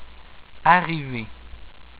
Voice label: "une arrivée", French

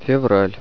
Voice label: "february", russian

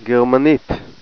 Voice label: "German", Hebrew

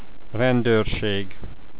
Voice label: "police", hungarian

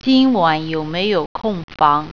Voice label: vacancies, mandarin

